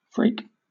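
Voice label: "freak", British English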